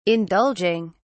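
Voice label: "indulging", British English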